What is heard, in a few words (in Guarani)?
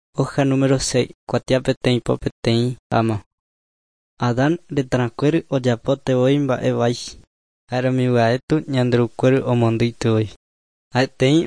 guarani mbya; good news and words of life; bible